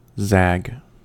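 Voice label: "zag", US English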